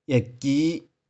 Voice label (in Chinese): "ih4ki5", 玉環